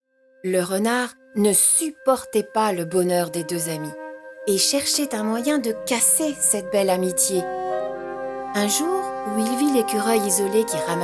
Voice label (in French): Narration; Ours et Ecureuil